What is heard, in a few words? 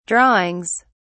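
"drawings", British English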